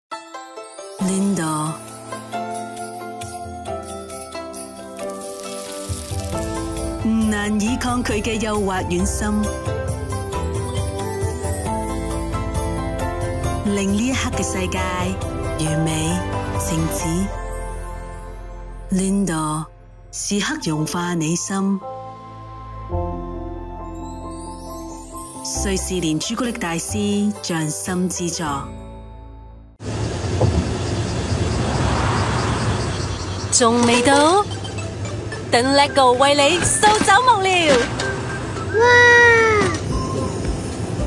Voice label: Cantonese HK, F

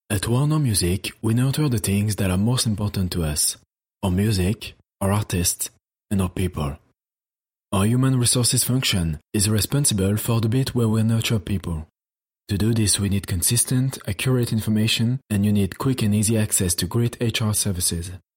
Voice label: French English M Corporate, accent of france; voice over

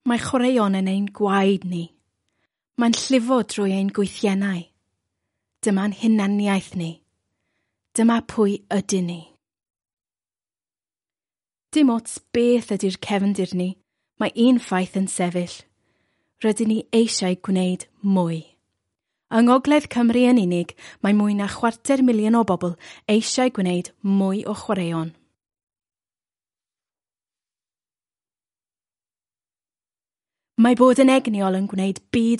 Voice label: Welsh F Corporate; voice-over

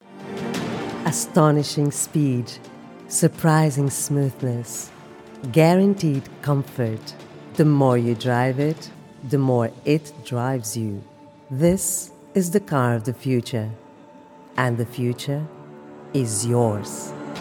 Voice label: English Portuguese F

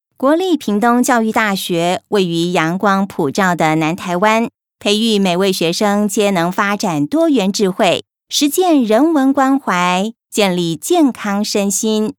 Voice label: Taiwanese Mandarin F

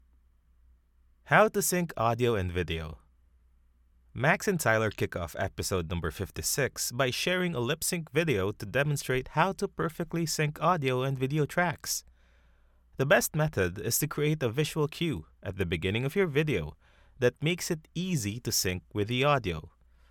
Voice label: Filipino EN M Explainer

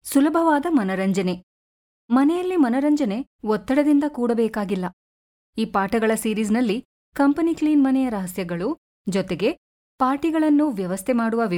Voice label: Kannada F

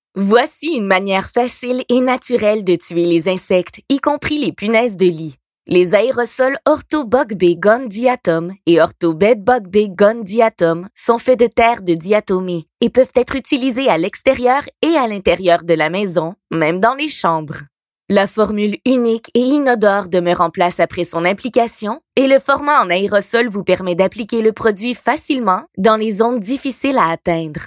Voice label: French Canada F; voice over

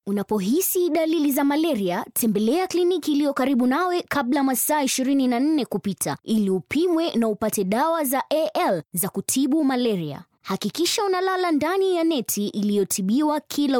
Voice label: Swahili F Informercial; voice-over

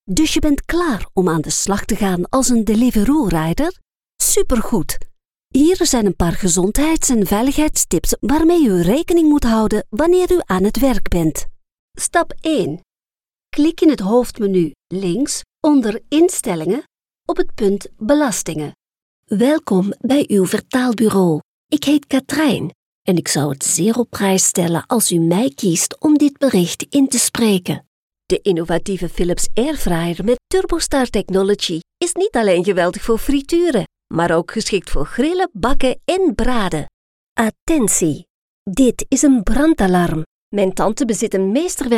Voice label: Flemish Voicereel; voice over

